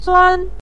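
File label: "zuan" neutral tone, Mandarin Chinese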